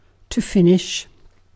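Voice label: "finish", British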